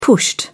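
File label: "pushed", British English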